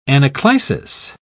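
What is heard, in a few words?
"anaclisis", British English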